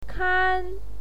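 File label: "kan", Mandarin Chinese, first tone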